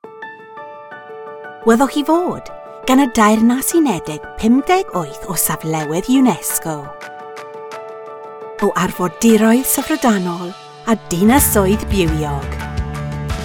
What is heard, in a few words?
welsh commercial